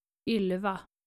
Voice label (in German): "ylva", skandinavische maedchennamen